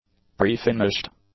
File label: "pre-finished", english; adjectives